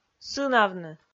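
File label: "сынавны", Komi, female; audio dict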